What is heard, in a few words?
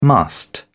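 "must", British English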